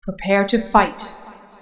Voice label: prepare, female; feedback

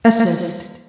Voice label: "assist", female; feedback

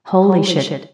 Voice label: "holy shit", female; feedback

